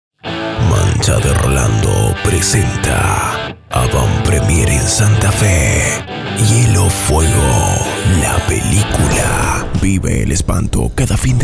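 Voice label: spanish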